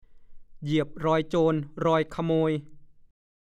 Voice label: "เหยียบรอยโจรรอยขโมย", ภาษาโคราช; (สำนวน) ริอ่านจะเป็นโจร เป็นขโมยหลงผิดไปตามคนอื่น

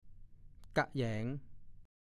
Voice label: "กะแหยง", ภาษาโคราช; ปลาแขยง

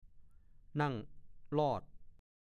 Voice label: "นั่งลอด", ภาษาโคราช; นั่งโป๊ นั่งถ่างจนเห็นหว่างขา

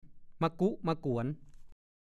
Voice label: "มากุ๊มาก๋วน", ภาษาโคราช

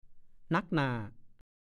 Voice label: "หนั๊กหน่า", ภาษาโคราช; ยิ่งแย่ ยิ่งทรุดหนัก

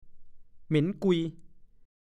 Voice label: "เหม็นกุย", ภาษาโคราช; เหม็นสาบ

